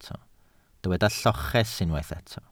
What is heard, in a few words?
"lloches", Welsh